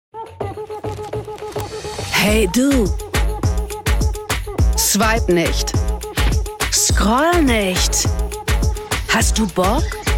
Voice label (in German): Werbung; ICEBRO